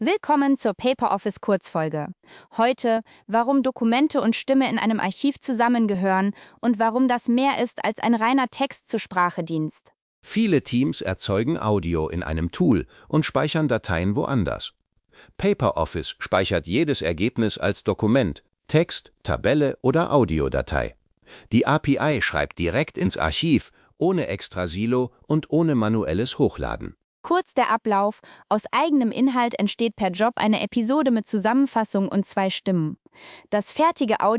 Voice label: sample de podcast